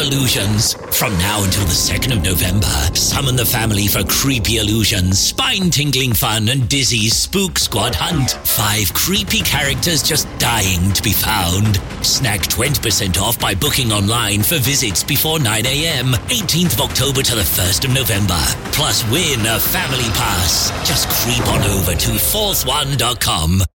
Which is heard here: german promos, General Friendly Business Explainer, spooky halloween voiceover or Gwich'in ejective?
spooky halloween voiceover